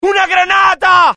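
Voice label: fear grenade, IT; mohaa; dialogue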